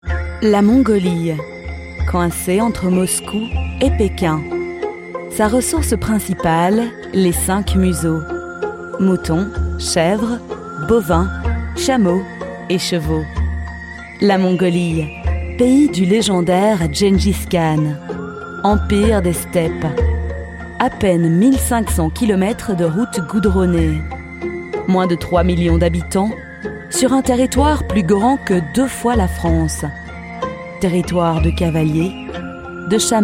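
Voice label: French Narration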